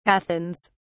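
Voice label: "Athens", British English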